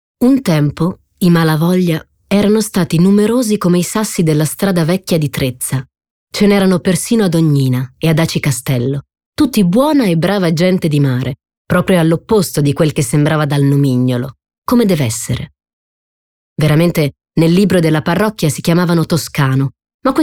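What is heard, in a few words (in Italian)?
Descrittivo, voci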